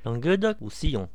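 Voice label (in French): "languedoc-roussillon", voices, br, french region; france region; gcompris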